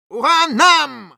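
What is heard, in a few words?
Medic battle cry, Russian; «Ура нам!»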